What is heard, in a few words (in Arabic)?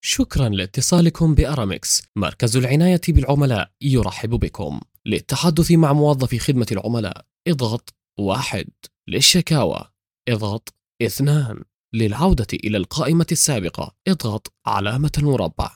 رَد آلي